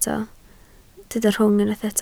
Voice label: "rhwng", Welsh